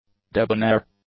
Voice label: "debonair", english; adjectives